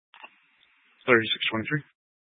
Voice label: Police 1 Dispatch